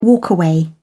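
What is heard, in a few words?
"walkaway", British English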